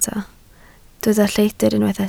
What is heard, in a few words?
"lleidr", Welsh